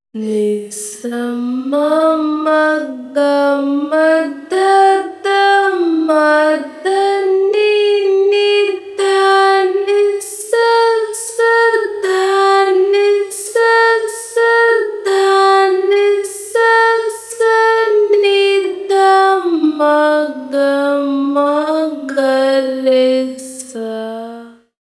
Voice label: timestretch voice